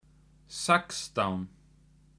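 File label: "sextan", Icelandic; tolur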